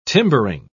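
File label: "timbering", British English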